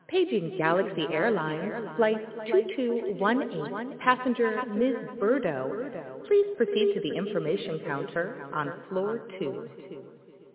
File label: SFX Sunshine Airport PA; Mario Kart 8